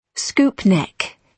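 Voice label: "scoop neck", British English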